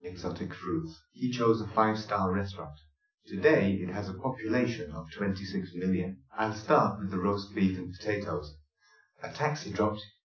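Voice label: male speech; SiSEC10; underdetermined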